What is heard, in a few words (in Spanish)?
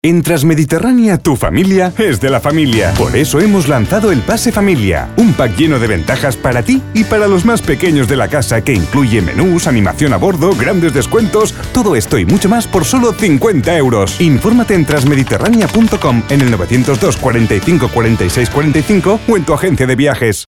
Cuña; Campaña Nacional de Acciona Trasmediterranea